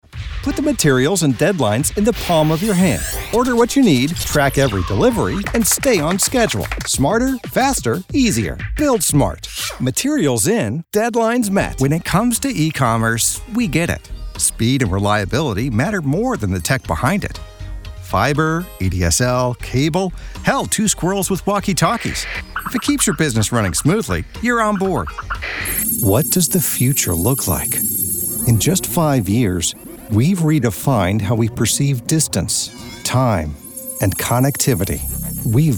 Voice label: voiceover corporate, Male, native 'general' American